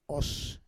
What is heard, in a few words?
"os", Roon